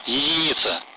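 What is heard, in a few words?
Speech Russian; Allied Flight; DCS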